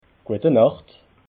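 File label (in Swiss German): "gueta nacht", Alsatian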